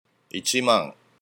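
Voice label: "ichiman", Japanese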